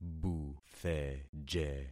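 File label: "butheje", concatenated syllables; Affixing experiment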